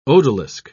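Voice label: "odalisque", English, British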